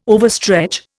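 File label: "overstretch", Indian English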